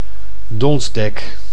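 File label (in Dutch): "donsdek", Dutch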